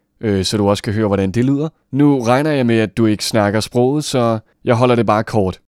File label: male denmark